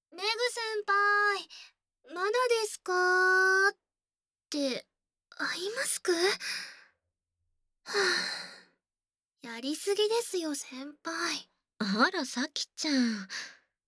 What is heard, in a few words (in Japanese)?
えちえちリラックスマッサージ 耳オイルマッサージ 鎖骨マッサージ キス 乳首マッサージ 耳ふー フェラ 耳舐め, 効果音なし, ASMR; さきちゃん